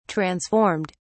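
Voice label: "transformed", British English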